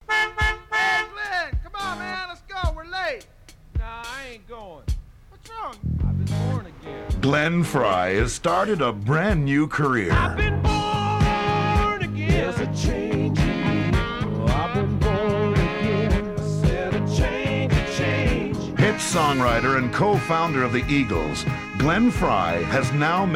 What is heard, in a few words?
Radio Commercials